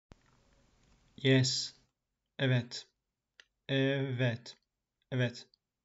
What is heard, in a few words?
"yes", turkish; the talking turkish phrasebook